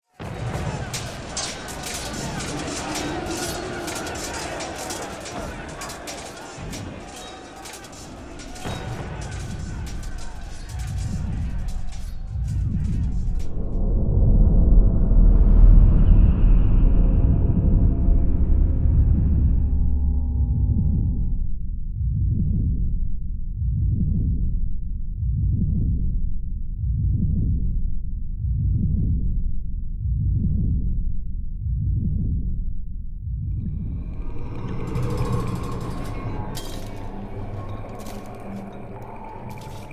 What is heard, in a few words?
cinematic voice; lineage2